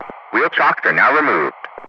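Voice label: "wheel chocks are now removed", English, Ground Crew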